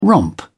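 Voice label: "romp", English, British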